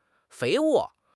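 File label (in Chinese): "肥沃", Mandarin Chinese; HSK6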